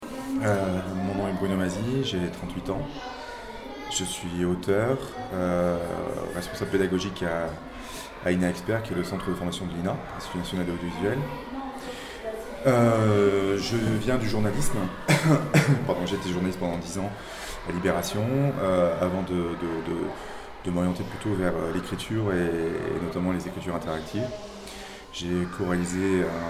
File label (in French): interview presentation